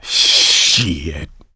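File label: reaction negative, male; survivor; coach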